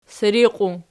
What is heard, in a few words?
"siriqiu", Archi